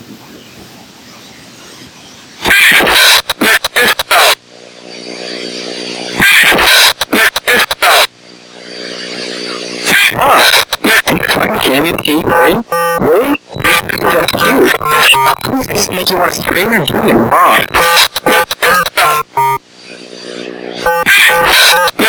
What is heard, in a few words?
freestyle rap